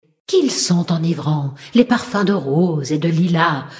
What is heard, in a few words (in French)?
Female; Sacrenuit; Blague